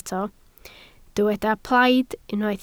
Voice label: "plaid", Welsh

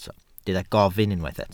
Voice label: "gofyn", Welsh

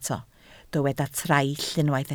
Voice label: "traill", Welsh

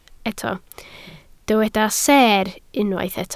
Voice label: "seer", Welsh

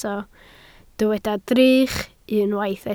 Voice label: "drych", Welsh

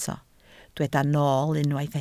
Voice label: "nool", Welsh

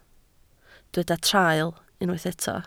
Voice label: "traul", Welsh

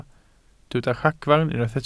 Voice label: "ragfarn", Welsh

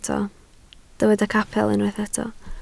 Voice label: "capel", Welsh

